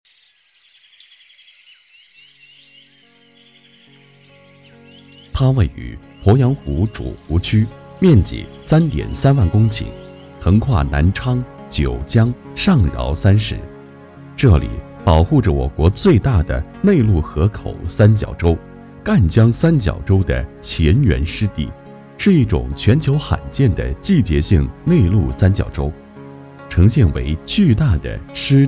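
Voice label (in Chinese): 景区宣传片湿地; 鄱阳湖南矶湿地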